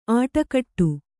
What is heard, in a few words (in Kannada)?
"ಆಟಗಟ್ಟು", Kannada